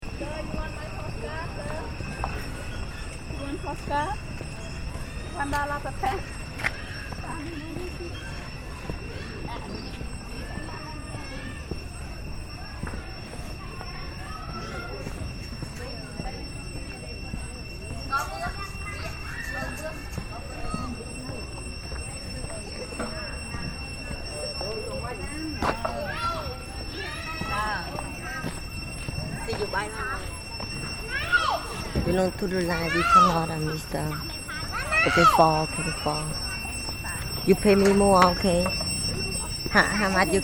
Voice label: hawkers